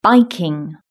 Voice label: "biking", British English

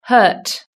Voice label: "hurt", British English

